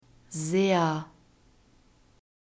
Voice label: "sehr", German